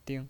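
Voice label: "ding" Mandarin Chinese, neutral tone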